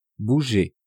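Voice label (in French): "bouger", French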